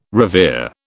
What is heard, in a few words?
"revere", British